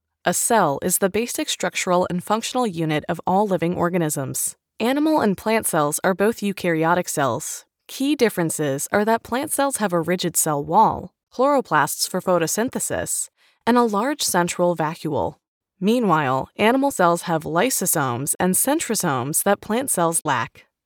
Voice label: Biology eLearning Sample; elearning corporate training